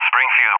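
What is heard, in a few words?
"Springfield", English speech; Allied Flight; CallSign; DCS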